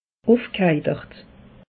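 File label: "ufgheitert", Alsatian; meteo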